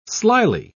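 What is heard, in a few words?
"slily", British English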